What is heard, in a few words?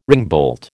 "ringbolt", American